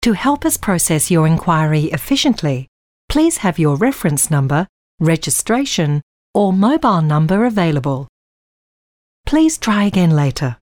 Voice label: On Hold